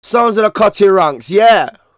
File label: Vocals; Jungle; Jungle Frenzy 1